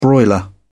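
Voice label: "broiler", British English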